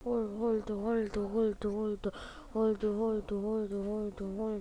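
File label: "hold", spoken word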